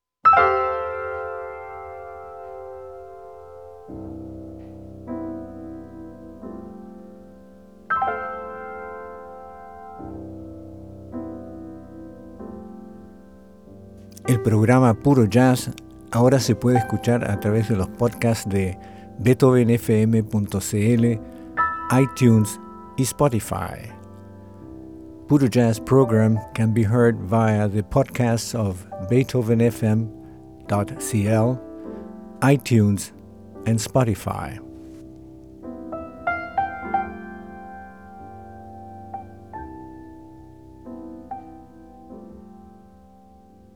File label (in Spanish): ANUNCIO